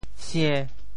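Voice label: "sie~5", Teochew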